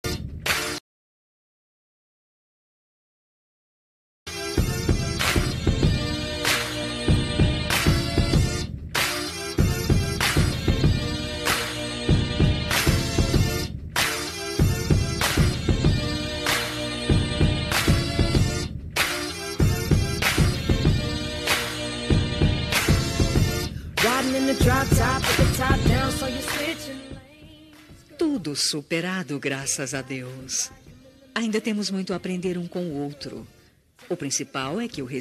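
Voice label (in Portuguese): enteado fem, Telemensagens; Aniversário de Enteado – Voz Feminina; Telemensagens para todas as ocasiões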